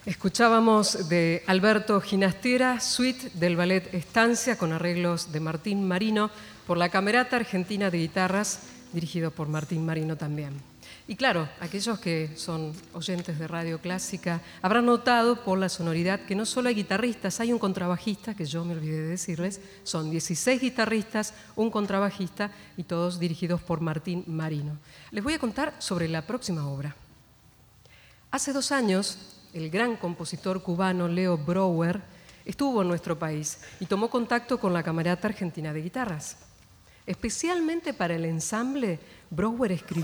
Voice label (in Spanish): locutora